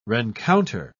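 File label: "rencounter", English, British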